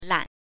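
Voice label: "lan", Mandarin Chinese, neutral tone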